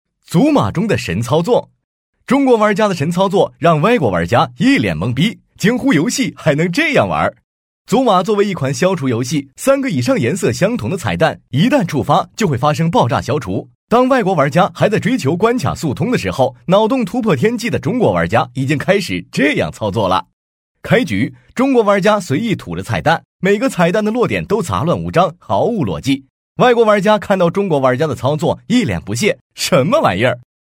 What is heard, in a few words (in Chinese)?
男 游戏自媒体解说